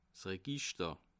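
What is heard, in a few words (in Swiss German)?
"register", Bas Rhin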